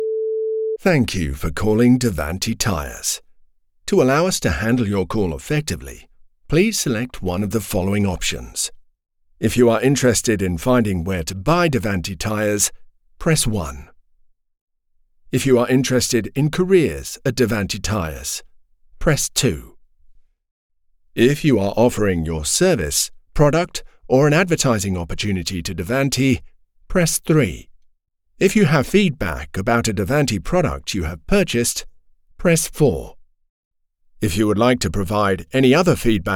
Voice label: english british ivr